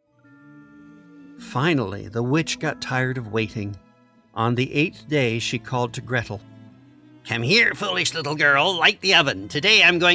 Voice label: audiobook